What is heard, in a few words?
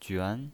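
"juan", second tone, pinyin voice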